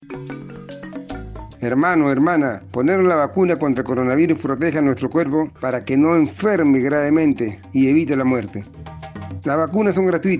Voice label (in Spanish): castellano pueblo afroperuano; las vacunas contra el coronavirus son buenas